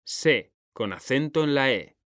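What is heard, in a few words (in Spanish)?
"SÉ", Spanish; mekanta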